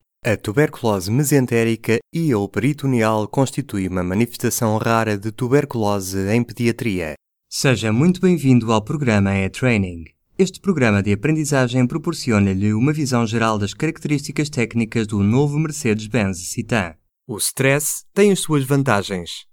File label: Elearning Narration PT